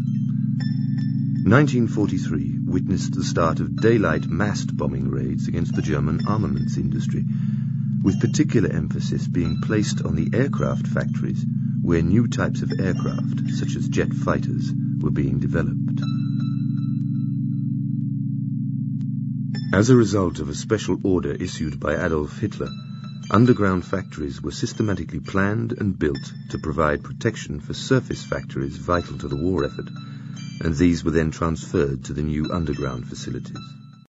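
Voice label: uk englisch, British English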